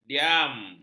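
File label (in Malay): "diam", Malay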